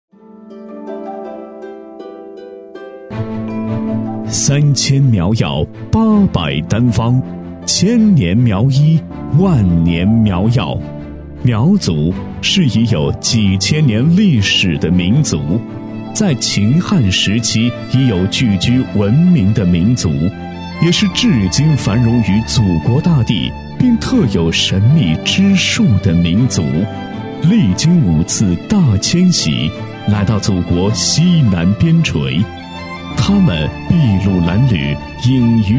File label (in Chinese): 男 宣传片 大气抒情; 苗医; 成熟浑厚 质感磁性